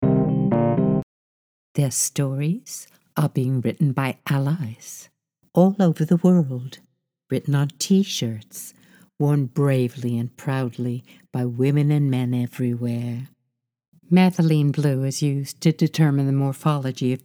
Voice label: NARRATION AND ELEARNING, HOME STUDIO PRODUCED